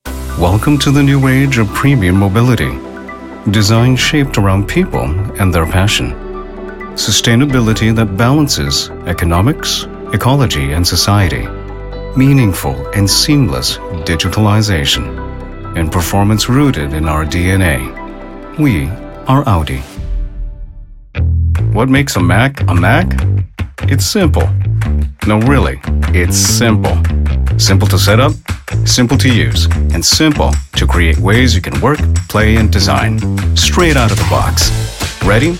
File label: Commercial